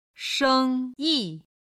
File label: "shengyi", chinese